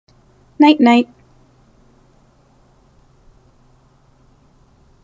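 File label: pronunciation "night night", English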